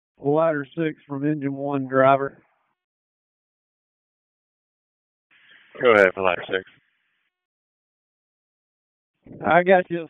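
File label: FIRE Operations, p25; FIRE OPS 2; wake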